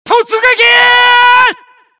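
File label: japanese rush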